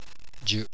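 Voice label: "dyu", Japanese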